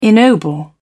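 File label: "ennoble", British English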